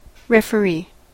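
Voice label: "referee", US English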